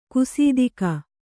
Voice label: "ಗಸಿತೆಗೆ", Kannada

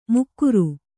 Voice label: "ಮುಕ್ಕುರು", Kannada

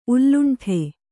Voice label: "ಉಲ್ಲುಂಠೆ", Kannada

